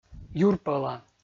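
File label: "юрпӧла", Komi, male; kpv audio dict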